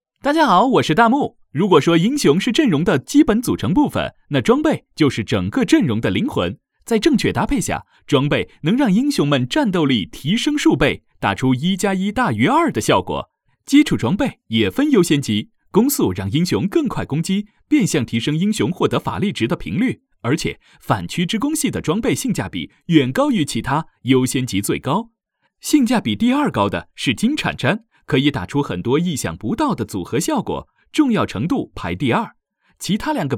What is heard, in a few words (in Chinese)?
游戏解说; 云顶之弈